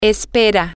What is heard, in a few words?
he hopes, spanish, F